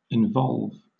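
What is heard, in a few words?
"involve", British English; pronunciations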